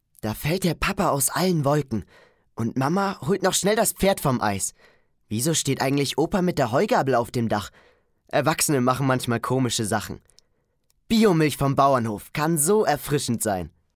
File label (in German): Spot Biomilch; engelszungen